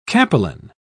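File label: "capelin", British English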